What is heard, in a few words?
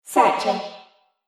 Robot Voice Searching; Robot Sound Effects; Sci-Fi Sound Effect